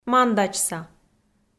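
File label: "мандачса", Komi, female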